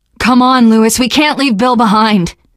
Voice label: inside saferoom, teen girl; source engine; left 4 dead 2; survivor